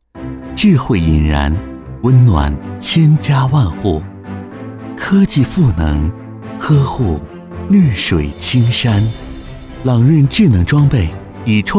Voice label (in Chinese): 男 宣传片 温情创新公司